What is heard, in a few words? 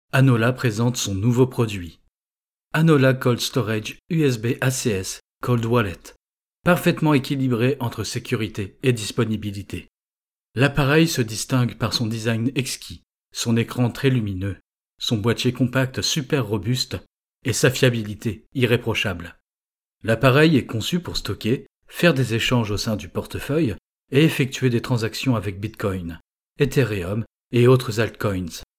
French, Male